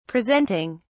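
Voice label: "presenting", British English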